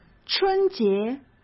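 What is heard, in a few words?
"春节", Mandarin Chinese; hsk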